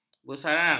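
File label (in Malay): "bersarang", Malay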